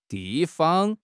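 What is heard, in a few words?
"di4 fang5", Mandarin Chinese; HSK3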